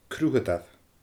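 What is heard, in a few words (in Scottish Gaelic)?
"cruthadair", Scottish Gaelic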